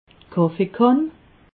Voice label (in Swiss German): "kaffeekann", alsace